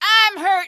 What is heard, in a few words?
i'm hurt, female; Source Engine; video game; npc